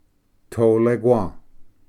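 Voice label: "tolequa", Cherokee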